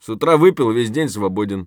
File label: scav mutter, voice; Escape from Tarkov; scav5